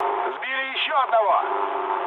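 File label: player killed enemy, mood low, Russian; War Thunder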